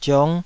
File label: "ziong5", Taiwanese